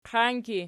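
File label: "qianki" nominative, Archi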